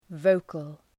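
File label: "vocal" English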